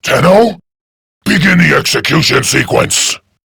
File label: Rescue Approach Alert Grineer Male; Warframe; Generic Missions